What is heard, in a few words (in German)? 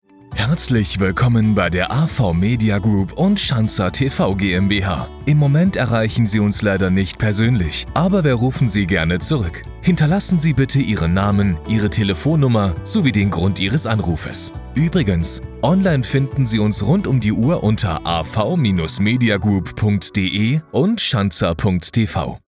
Sprachprobe m